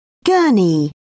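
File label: "gurney", British English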